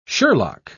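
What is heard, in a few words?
"sherlock", British English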